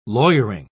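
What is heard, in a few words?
"lawyering", British English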